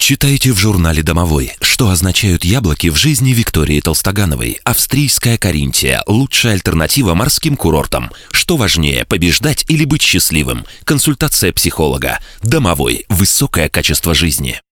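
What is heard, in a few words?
Russian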